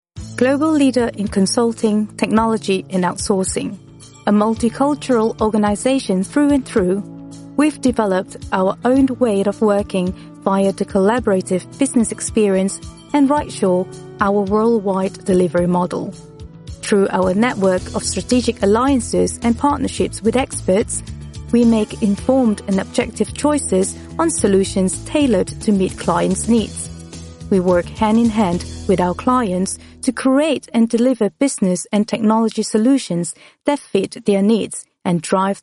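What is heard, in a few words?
Malay English F Corporate, female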